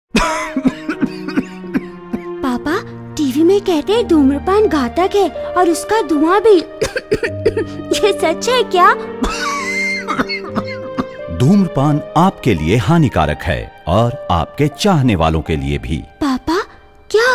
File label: Hindi child